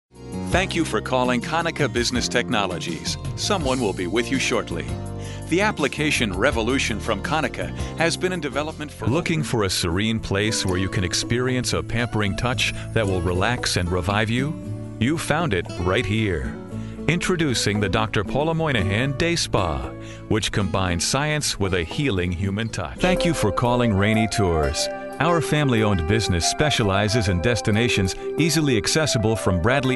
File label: male